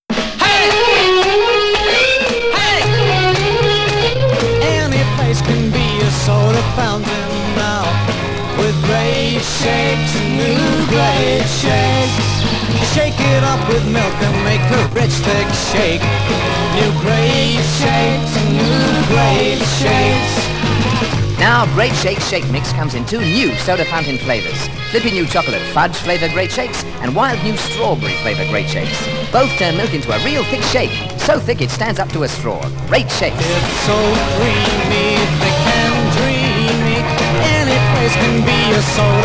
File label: Commercial; Great Shakes